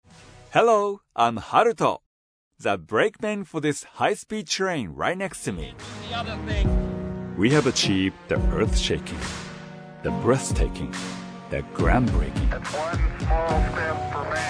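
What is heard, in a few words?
Japanese male English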